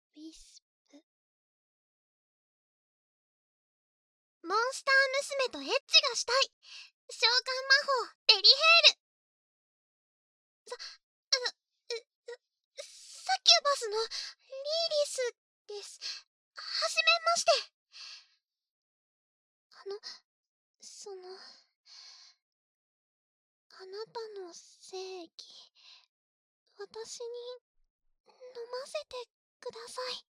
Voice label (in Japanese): タイトルコール, ASMR